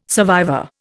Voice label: "survivor", Indian